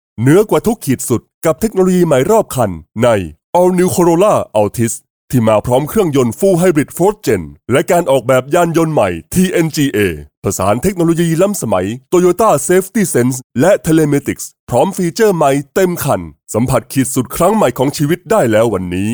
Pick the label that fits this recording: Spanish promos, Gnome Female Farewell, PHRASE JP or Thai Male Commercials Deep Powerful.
Thai Male Commercials Deep Powerful